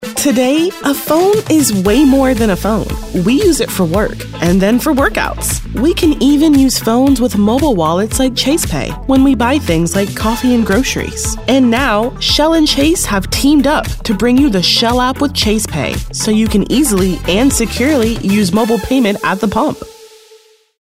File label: A Phone Today, friendly